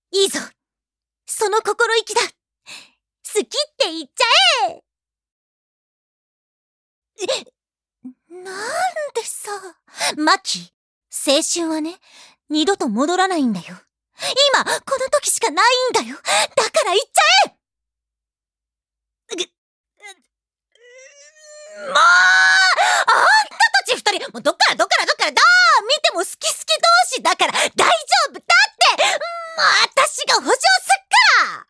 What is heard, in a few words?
female